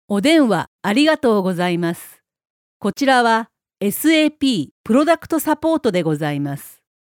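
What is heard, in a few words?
Japanese, female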